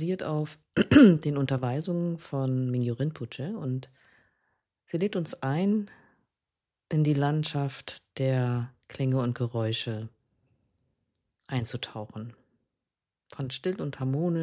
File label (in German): Meditation mit Geraeuschen